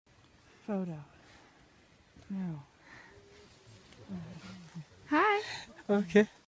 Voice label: okay african guy